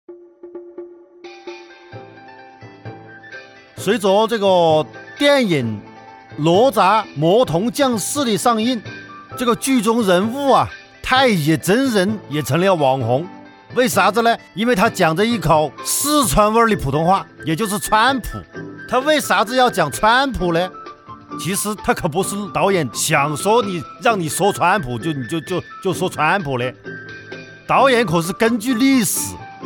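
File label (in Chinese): 方言类川普; 方言类太乙真人讲川普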